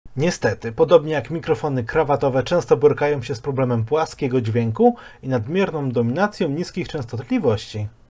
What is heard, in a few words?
Dji Mic; mikrofonu; Dji-Mic-Norm